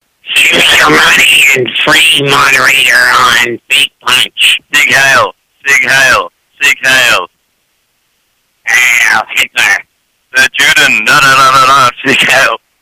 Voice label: voicemail; partially incomprehensible voicemails